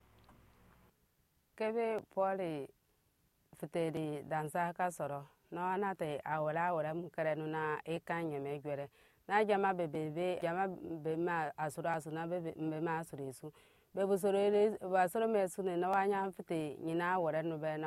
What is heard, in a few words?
Anufo; God Works in Ephesus